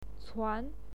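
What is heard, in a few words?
"cuan", Mandarin Chinese, second tone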